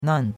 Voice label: "nan4", chinois; Cours de Chinois

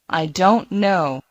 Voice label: Mandarin Conversation

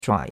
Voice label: "zhuai3", chinois; Cours-de-Chinois

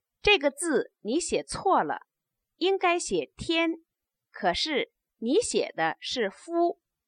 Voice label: stative, Chinese

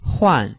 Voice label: "huan4", Chinese

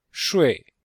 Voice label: "shui4", chinois; Cours-de-Chinois

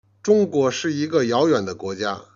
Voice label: "jian", Chinese

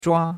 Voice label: "zhua1", chinois; Cours de Chinois

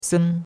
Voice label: "sen1", chinois; Cours de Chinois

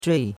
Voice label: "zhui4", chinois; Cours de Chinois

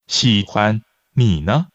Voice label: Mandarin Conversation

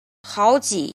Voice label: "jin", Chinese

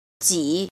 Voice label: "jin", Chinese

